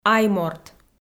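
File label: "айморт", Komi-Zyrian, female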